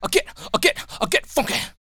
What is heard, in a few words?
male spoken; R&B Dance